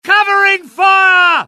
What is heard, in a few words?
suppress, dialogue UK; mohaa